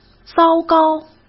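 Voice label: "糟糕", Mandarin Chinese; hsk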